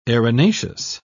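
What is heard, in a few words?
"erinaceous", British English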